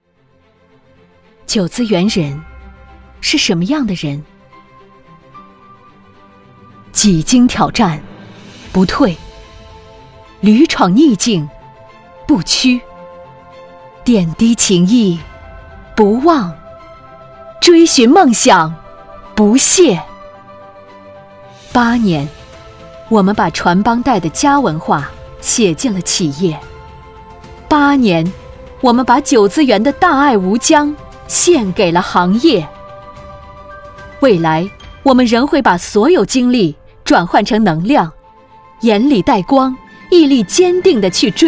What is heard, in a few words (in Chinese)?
广告激昂大气; 玖姿